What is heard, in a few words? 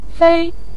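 "fei", Mandarin Chinese, neutral tone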